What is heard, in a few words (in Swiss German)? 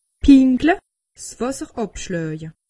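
"pinkle", Alsatian; medecine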